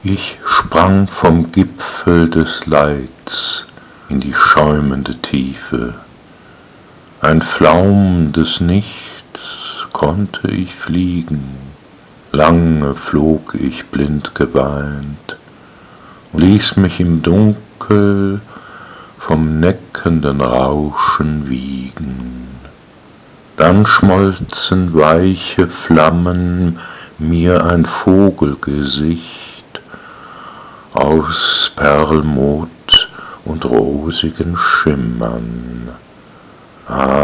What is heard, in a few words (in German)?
Die weibliche Stimme